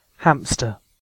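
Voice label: "hamster" British English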